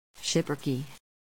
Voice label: "schipperke", American English